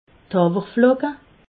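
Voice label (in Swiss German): "hawwerflocke", Alsatian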